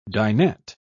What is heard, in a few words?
"dinette", pronounce